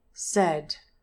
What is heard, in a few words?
"said", English pronunciation